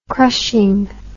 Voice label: "crushing", english